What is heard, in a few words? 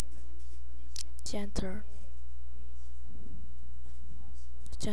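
"gentle", spoken word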